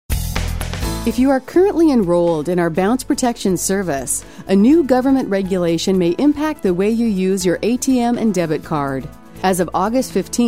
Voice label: On hold message; Progressive Bank